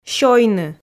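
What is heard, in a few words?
"сёйны", Komi, female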